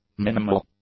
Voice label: "minimal", English; adjectives